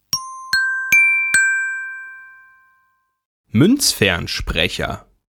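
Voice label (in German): "Muenzfernsprecher", German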